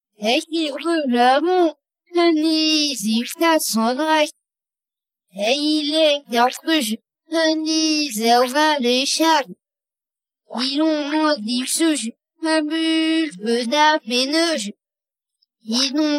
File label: rap vocal